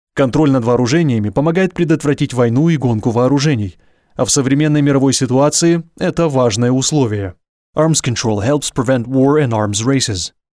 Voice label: russian corporate videos

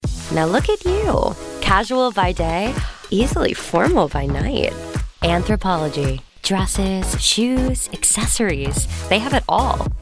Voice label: english american commercial

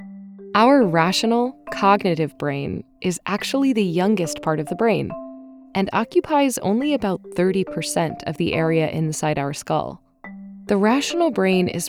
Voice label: english american e-learning

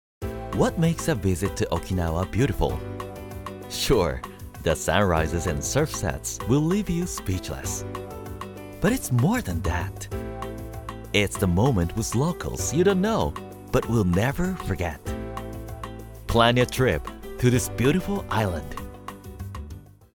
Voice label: english narration

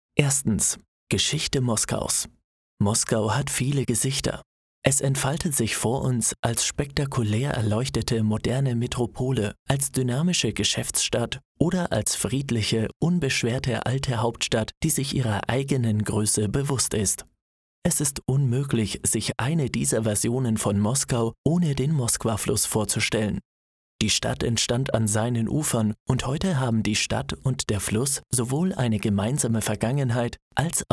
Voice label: german audio guides

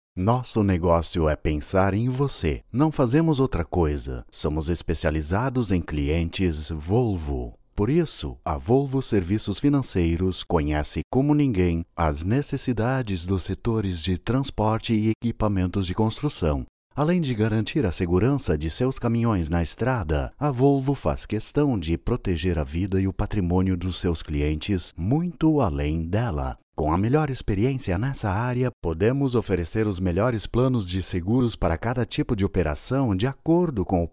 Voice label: portuguese brazilian corporate videos

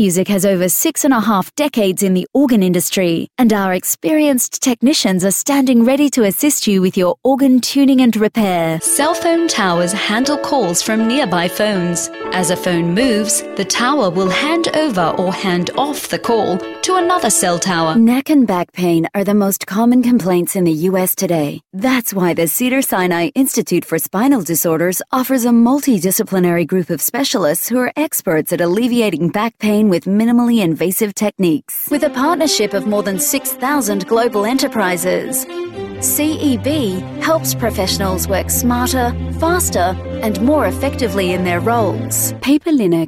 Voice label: english international corporate videos

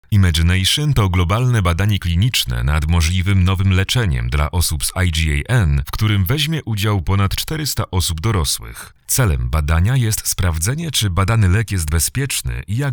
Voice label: polish medical narration

